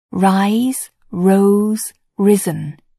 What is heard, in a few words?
"rise", English